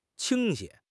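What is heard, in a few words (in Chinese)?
"倾斜", Chinese; HSK6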